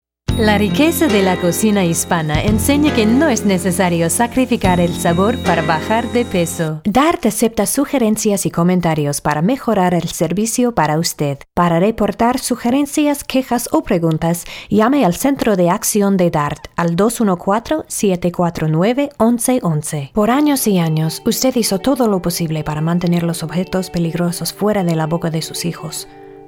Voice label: spanish commercial; United States; seasoned, professional American voice actor

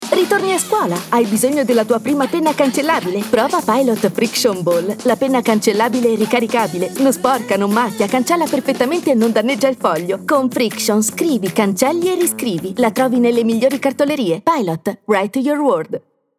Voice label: Italian movie trailers

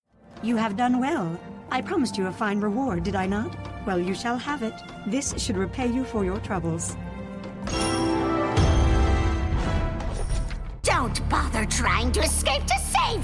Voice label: english american video games

